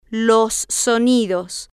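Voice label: "los sonidos", Spanish, female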